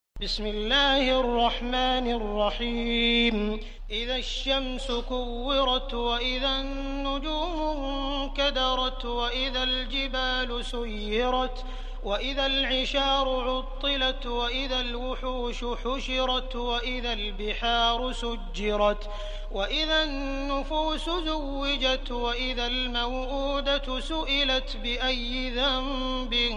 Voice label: Surah At Takwir urdu translation voice